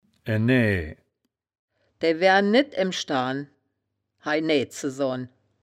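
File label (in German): "enaeae", wittlicher; enää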